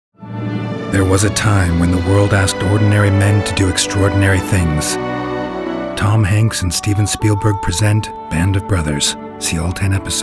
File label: Commercial